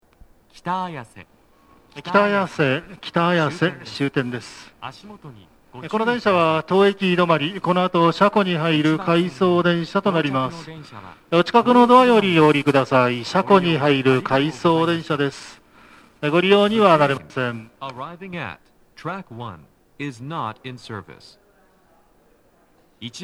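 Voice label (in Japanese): 北綾瀬 1番線 到着放送, 男声, 当駅止まり, 待合室TOAでの収録, 駅員放送が被っています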